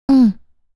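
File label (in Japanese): "うん", Japanese; Windows向けシステムボイス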